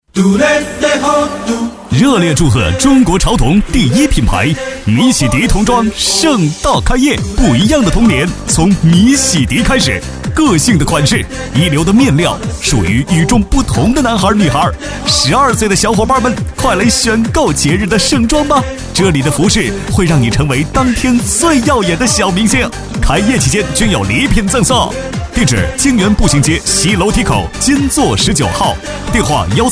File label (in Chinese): 男 促销 童装